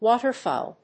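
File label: "waterfowl", English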